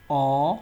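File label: "or", Nigerian Pidgin, male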